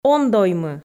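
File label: "он доймы", Komi, female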